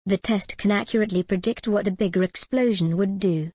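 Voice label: British English, the test can accurately predict what a bigger explosion would do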